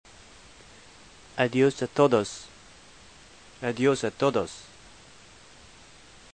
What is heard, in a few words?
spanish